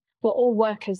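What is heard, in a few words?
Voice Acting es, female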